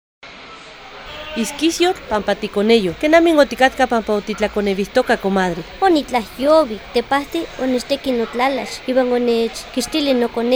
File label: Lengua Nahuatl Sierra Zongolica; Violencia Obstetrica